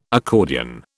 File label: "accordion", British